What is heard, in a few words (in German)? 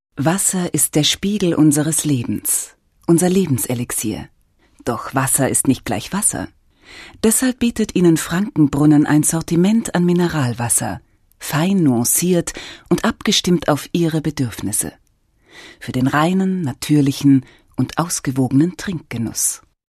Werbung; Franken Brunnen